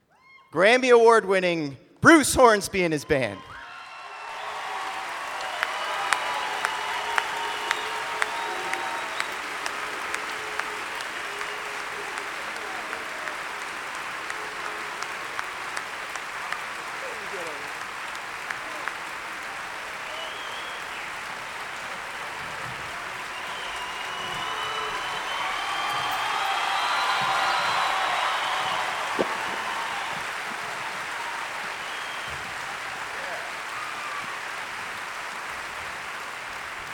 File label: Announcer